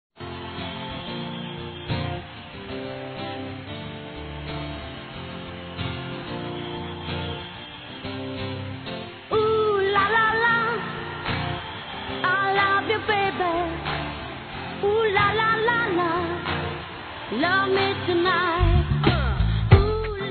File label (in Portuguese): Aniversário Adolescente Masculino; Aniversários; ANIV. DE ADOLESCENTE; Telemensagens